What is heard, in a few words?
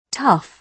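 "tough", British English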